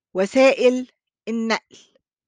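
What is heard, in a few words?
"وسائل النقل", Arabic; means of transportation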